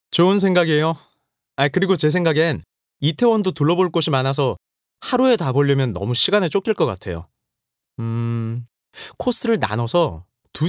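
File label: Korean, male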